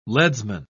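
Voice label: "leadsman", English, British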